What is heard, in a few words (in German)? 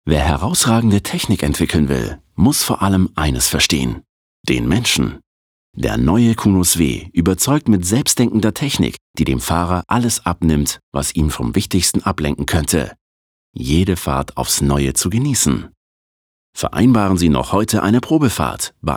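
Werbung, Dynamisch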